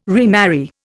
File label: "remarry", Indian